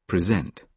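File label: "present", British English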